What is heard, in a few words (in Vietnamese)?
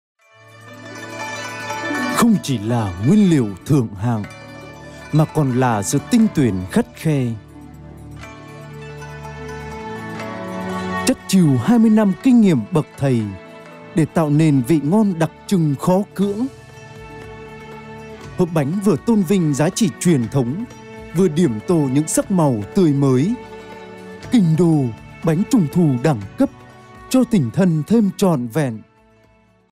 Voice Nam Mien Bac; Voice-off